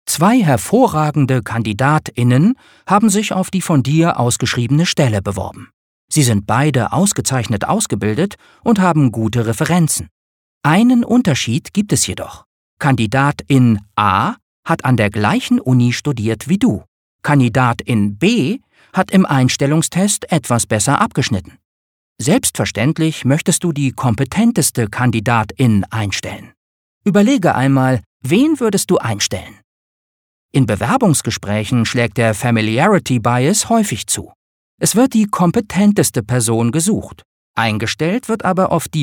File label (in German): E-Learning Gendersprache; FamiliarityBias